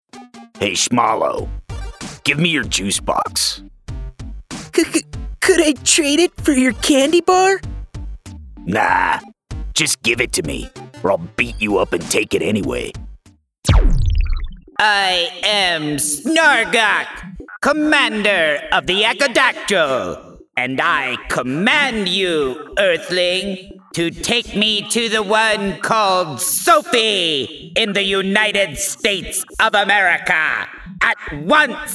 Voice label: Animated Character Compilation